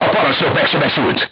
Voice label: voice message commander wounded, crew tanks hungarian